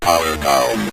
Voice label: power Down, Synth Voice; Kenney's Sound Pack